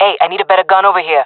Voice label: request weapon, jungle fem; player vo; Counter Strike Model Pack; CS:GO